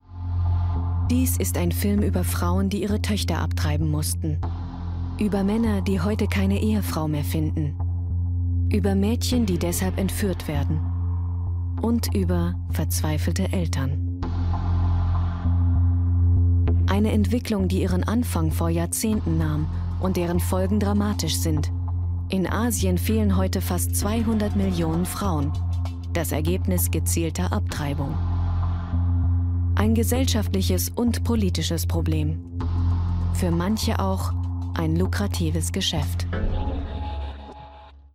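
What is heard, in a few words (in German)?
German Kommentar; Bloa Keine Tochter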